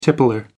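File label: "tippler", British English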